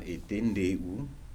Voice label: "banana", Kibembe